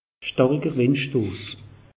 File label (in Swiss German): "stariker", alsace; meteo